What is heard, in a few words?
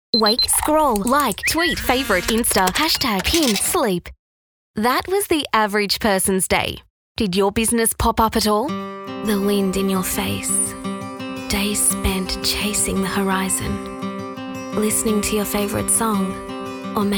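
female retail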